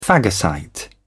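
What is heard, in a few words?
"phagocyte", English, British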